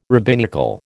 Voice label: "rabbinical", American